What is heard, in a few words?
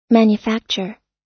"manufacture", us